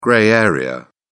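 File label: "grey area", British English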